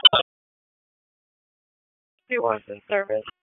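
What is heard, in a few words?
EMS Dispatch; Wake; p25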